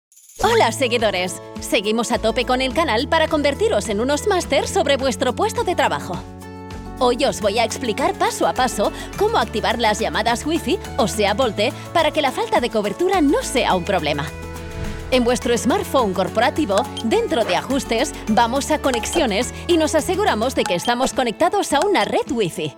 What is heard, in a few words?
Spanish TV shows